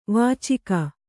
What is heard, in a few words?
"ವಾಚಕ", Kannada